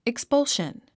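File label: "expulsion", English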